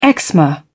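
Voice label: "eczema", British English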